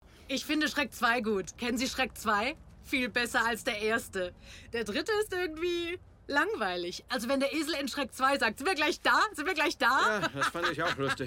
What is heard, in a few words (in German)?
Synchron Kinofilm Lustige Story; LOFT VOICES